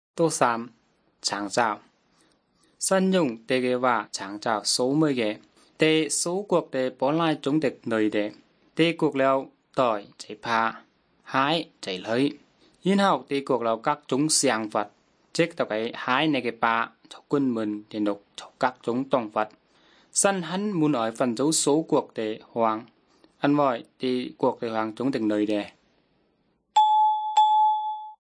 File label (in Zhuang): good news zhuang nanning fushu; zhuang yongbei; bible